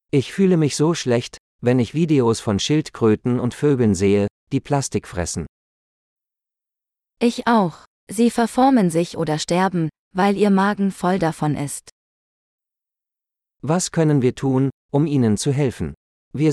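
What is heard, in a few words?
Marine Pollution - Dialogue, German; de-en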